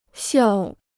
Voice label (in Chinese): "笑", Chinese